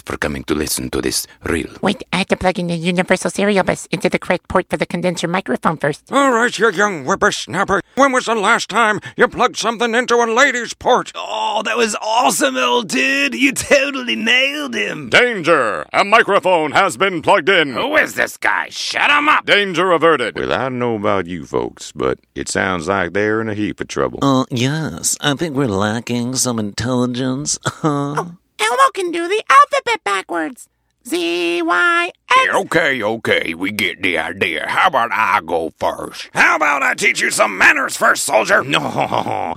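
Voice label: Animation, vo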